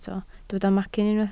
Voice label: "macyn", Welsh